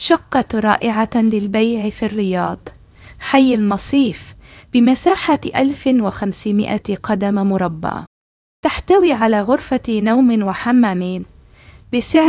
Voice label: narration audio